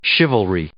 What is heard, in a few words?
"chivalry", English